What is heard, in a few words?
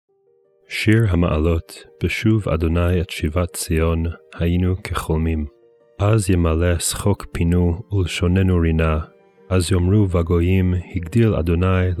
psalm reading hebrew